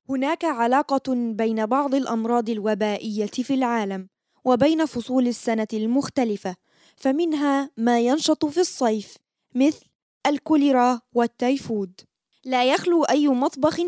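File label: Arabic, Saudi Arabia, female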